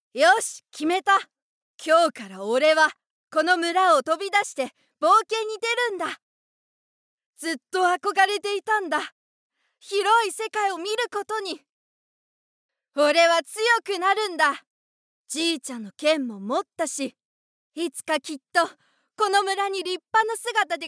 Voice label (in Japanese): Shonen